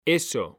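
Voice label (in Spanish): "eso", Spanish; mekanta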